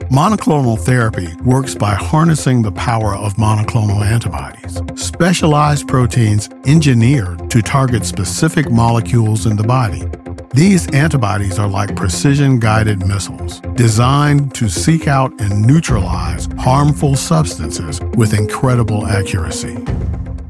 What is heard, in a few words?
Monoclonal Antibodies Narration